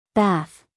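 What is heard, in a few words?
"bath", American English, female; 1000 hours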